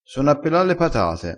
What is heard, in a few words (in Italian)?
"pela", crespinese